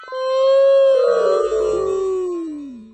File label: oof slow; roblox